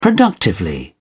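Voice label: "productively", English, British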